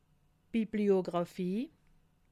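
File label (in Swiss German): "bibliographie", Haut-Rhin; livre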